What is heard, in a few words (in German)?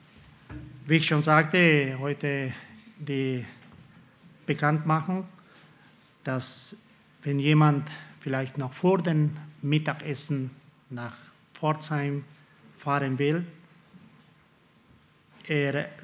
Ansage; Eine Wahl - entweder oder